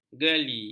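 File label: "gali", Malay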